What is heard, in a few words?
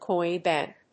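"coin bank", English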